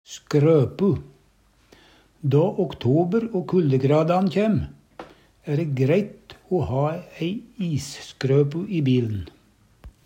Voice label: isskrøpu, Numedalsmål

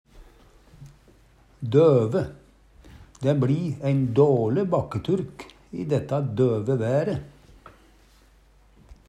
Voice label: "døve", Numedalsmål; Adjektiv

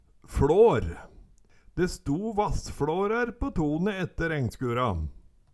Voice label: fLår, Numedalsmål

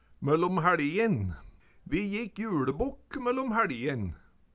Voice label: mollom haeLjin, Numedalsmål; Vi jikk juLebokk møllom hæLjin

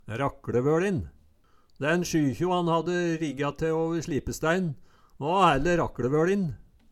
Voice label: rakLevoLin, Numedalsmål; slipestæin va hæller rakLevøLin

